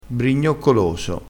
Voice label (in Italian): "brignoccoloso", crespinese